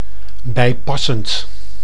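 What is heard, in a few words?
"bijpassend", Dutch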